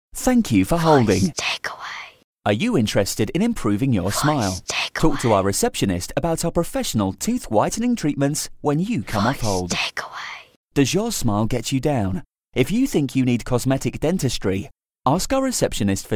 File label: Dentists Fresh Male